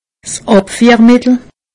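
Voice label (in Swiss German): "abfiermittel", Alsatian; medecine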